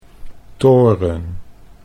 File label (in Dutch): "toren", Dutch; chess